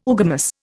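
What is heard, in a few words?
"oogamous", Indian English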